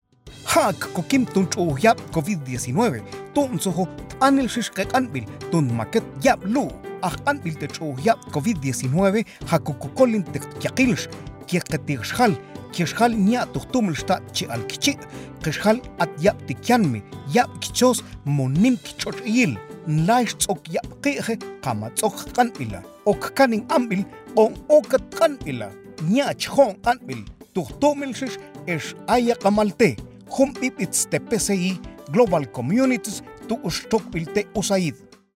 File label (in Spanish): Beneficios de vacunarse Mam